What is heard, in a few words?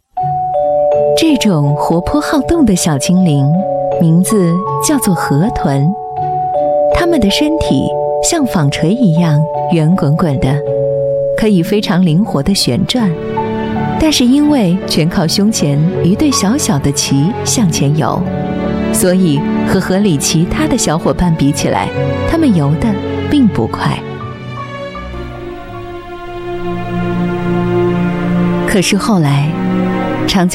chinese voice over female documentary